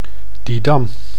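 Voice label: "Didam", Dutch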